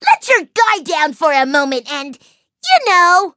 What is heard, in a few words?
menu tap eng; chili pepper; cookie run